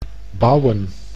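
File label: "bouwen", Dutch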